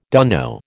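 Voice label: "dunno", American English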